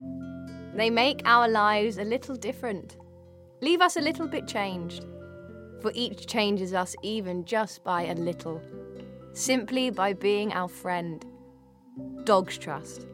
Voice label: ad; Dog Trust